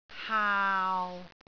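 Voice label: "haau6", Cantonese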